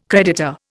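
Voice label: "creditor", Indian English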